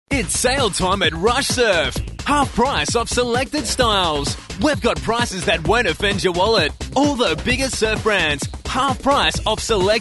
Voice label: TV sample